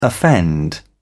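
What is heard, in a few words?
"offend", British English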